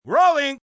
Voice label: Rolling, Film, movie, direction, assistant director, male 'Rolling', (human voice speaking); Talking; VoiceBox